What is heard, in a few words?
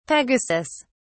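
"pegasus", English, British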